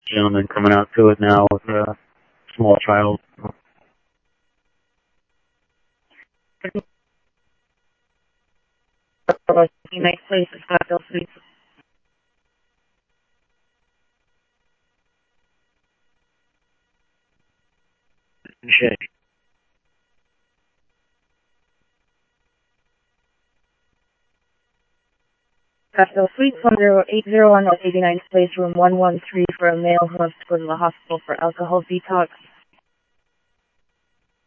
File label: Police, scanner; H-Scottsdale; ScottsdalePolice